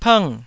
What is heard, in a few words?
"png4", taiwanese